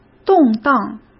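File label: "动荡", Mandarin Chinese; hsk; chinese flashcards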